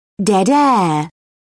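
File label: "dead air", en, British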